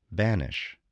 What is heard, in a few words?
"banish", English